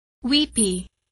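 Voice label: "weepy", English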